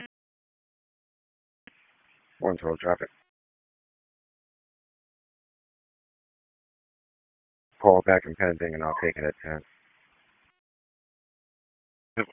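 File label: Police Southwest District Disp, radio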